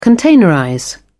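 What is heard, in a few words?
"containerize", British English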